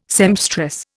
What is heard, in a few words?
"sempstress", Indian